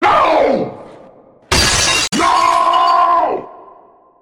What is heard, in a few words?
NO Nooooo